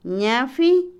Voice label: masticar, Otomí, mujer; Comida; INALI

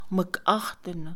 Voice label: Mixe, Mujer; fortaleza; INALI

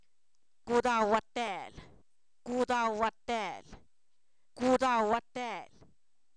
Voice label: comelón, Zapoteco, san miguel albarradas; adjetivos

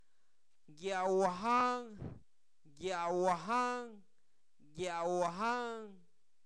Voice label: espejo, Zapoteco, santo domingo albarradas; objetos inanimados

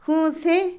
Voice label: cerveza, Otomí, mujer; Comida; INALI

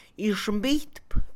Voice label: voltear, Mixe, Mujer; Movimiento; INALI

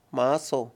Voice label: venado, Mayo; Animales

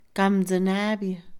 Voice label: ermitaño, Mixe, Mujer; INALI

